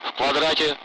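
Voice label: Speech, Russian, Target grid; Allied Flight; DCS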